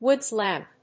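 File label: "Woods lamp", English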